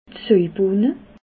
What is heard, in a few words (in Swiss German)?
"soibohne", Alsace